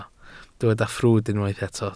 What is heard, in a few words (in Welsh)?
"ffrwd" Welsh